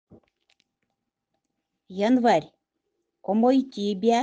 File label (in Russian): январь, удэгейский